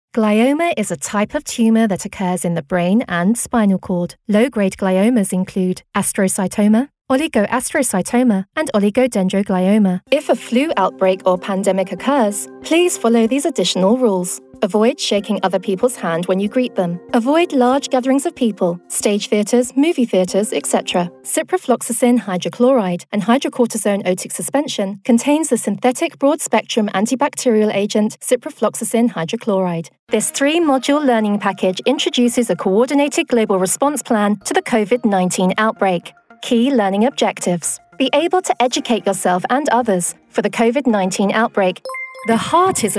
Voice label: Medical Narration Showreel; VO Pro Cast